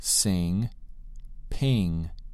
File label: "sing" "ping"